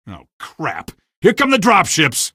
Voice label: crap ships, male; Source Engine; video game; coast; barn

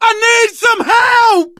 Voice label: help, survivor voice, manager

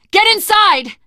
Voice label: stay together inside, survivor voice teen girl

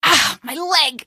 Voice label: my leg, female; Source Engine; video game; npc

